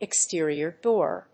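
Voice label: "exterior door", English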